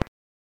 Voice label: Aviation 121.5 Emergency

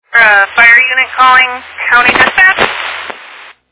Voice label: Fire Dispatch

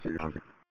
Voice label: Police Sheriff Dispatch

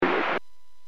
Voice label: Aviation 121.5 Emergency

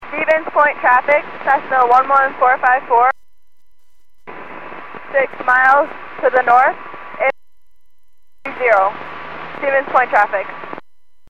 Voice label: Aviation 122.7; KSTE

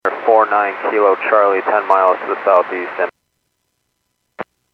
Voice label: Airports 122.7, Radio; KSTE

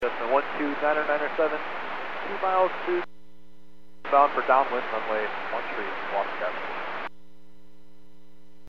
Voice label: Aviation 122.7; KSTE

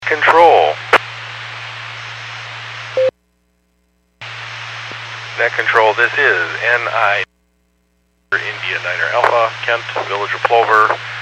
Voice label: Skywarn 146.67; Stevens Point

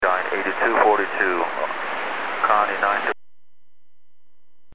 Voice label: Aviation 121.5 Emergency

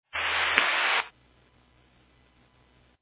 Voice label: Fire Dispatch

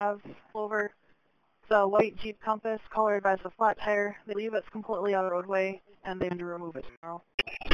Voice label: Police Sheriff Dispatch; Radio

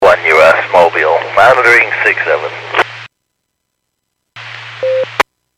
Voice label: Skywarn 146.67; Stevens Point

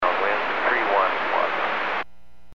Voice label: Aviation 122.7; KSTE

